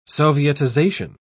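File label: "sovietization", English, British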